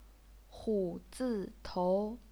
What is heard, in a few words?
"hu3 zi4 tou2", chinese pinyin